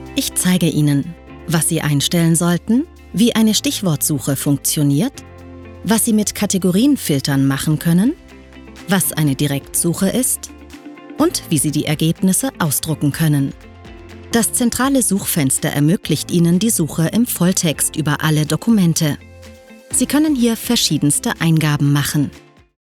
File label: Elearning software